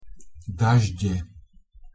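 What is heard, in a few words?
"dažde", Slovak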